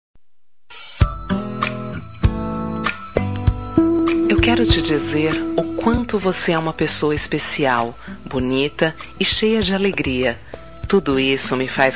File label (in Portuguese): Romântica Fim de Namoro